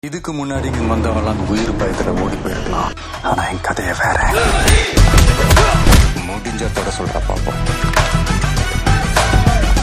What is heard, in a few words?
Movie Dialogue Ringtone; Master